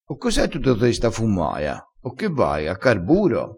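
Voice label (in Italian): "nda a carburo", dialettale crespinese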